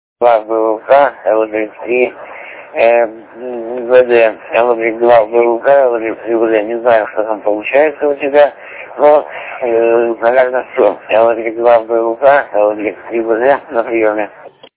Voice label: test FreeDV